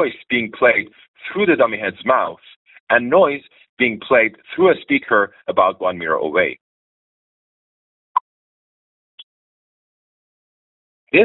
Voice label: phone call recording quality; Samsung Galaxy Buds3 Pro; truly wireless; microphone reduces noise on phone calls when tested with a Samsung Galaxy S24; includes a voice quality sample (0:00-0:22), a sample with speech and pink noise (0:23-0:49), and a sample with speech and subway noise; The Samsung Galaxy Buds3 Pro are the 2024 follow-up to the previous Samsung Galaxy Buds2 P